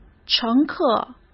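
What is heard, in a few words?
"乘客", Mandarin Chinese; chinese-flashcards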